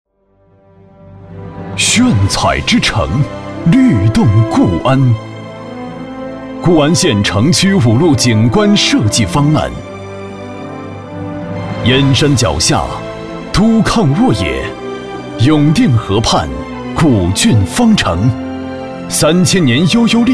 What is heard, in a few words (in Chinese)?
宣传严肃; 固安景观